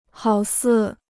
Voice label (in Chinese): "好似", Chinese